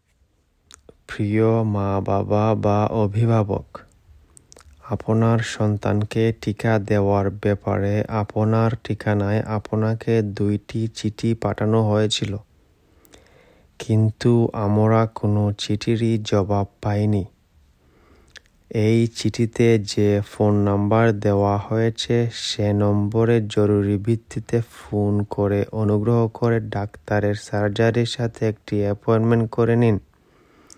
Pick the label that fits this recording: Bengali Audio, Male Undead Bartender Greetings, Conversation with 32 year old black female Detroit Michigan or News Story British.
Bengali Audio